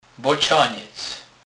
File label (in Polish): "bocenec", kurpie; slownik